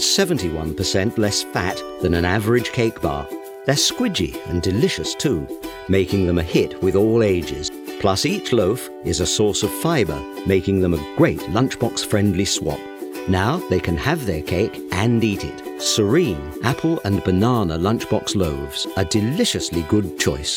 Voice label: Commercial